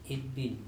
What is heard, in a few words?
"itbin", andamanese; squeeze